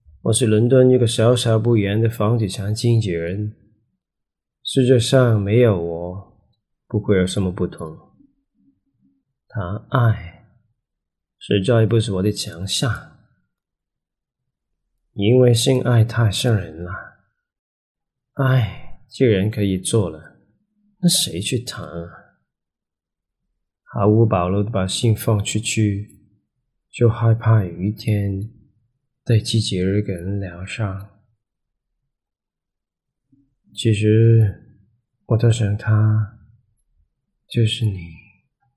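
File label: Chinese Film Mandarin; GoLocalise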